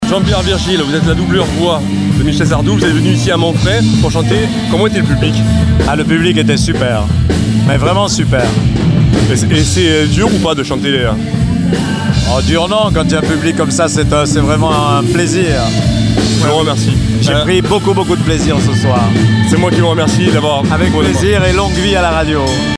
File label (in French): Fête Votive, doublure voix; Fête Votive Montfrin 2018